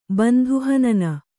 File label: "ಬಂಧುಹನನ", kannada